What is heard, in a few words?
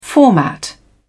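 "format", English, British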